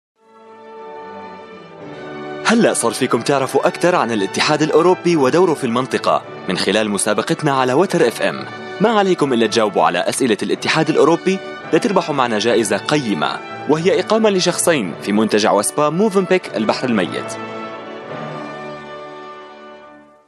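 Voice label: Arabic, Promotional, Accent Jordan; GoLocalise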